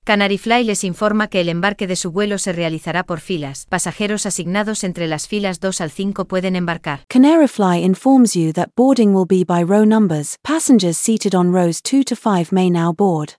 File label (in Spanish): Embarque, Announcements; CanaryFly; Female